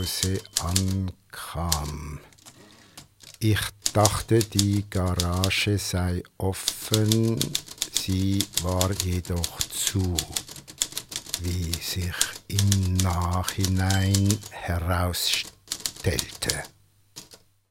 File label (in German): Versicherungsgesellschaft Werbung; D